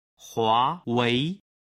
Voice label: "huawei", Chinese